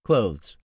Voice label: "clothes", english; 3000 words